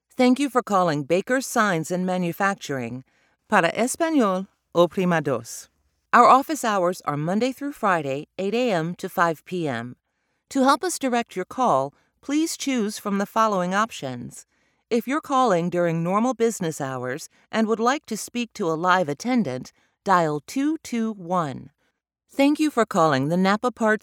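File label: english american interactive voice response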